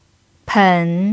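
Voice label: "pen" third tone, chinese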